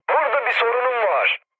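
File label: aircraft damaged, mood low, Turkish; speech; War Thunder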